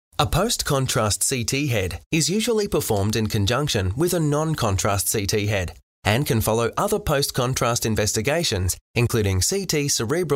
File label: english australian audio guides; Modulated, clear Australian accent